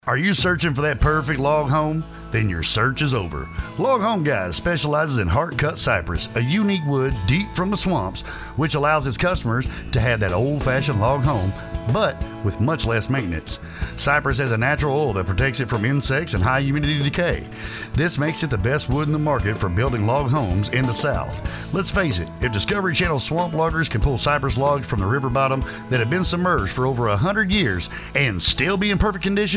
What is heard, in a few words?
Commercial; cypress cabins kits